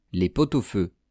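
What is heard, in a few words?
"les pot au feu", French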